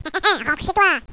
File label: children taunt; valve